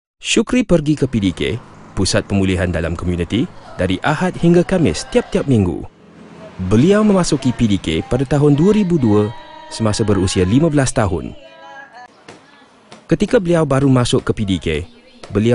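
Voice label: Malaysia, male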